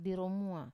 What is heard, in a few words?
"diro mua", brother-in-law, Wamesa